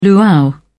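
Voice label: "luau", British English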